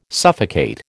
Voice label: "suffocate", American

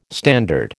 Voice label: "standard", American

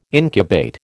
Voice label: "incubate", American

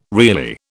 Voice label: "really", British

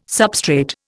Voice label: "substrate", Indian

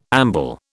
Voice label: "amble", British

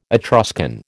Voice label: "Etruscan", American

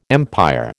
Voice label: "empire", American

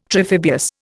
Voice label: "triphibious", Indian

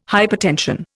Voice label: "hypertension", Indian

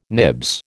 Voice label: "nibs", American

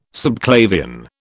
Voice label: "subclavian", British

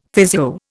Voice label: "physio", Indian; Dictionary

